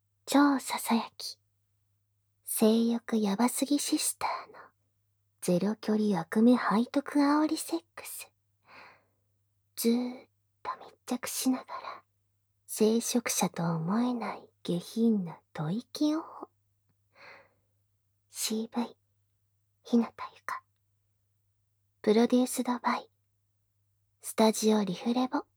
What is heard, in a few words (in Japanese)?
タイトルコール, ASMR